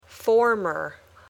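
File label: "former", English word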